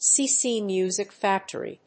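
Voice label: "C C Music Factory", English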